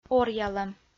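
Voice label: "оръялӧм", Komi-Zyrian, female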